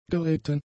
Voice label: "geritten", German; adjectives